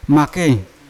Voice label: "make", Yawa; dog; unat